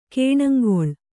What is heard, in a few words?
"ಗುಣಂಗೊಳ್", Kannada